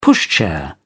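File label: "pushchair", British English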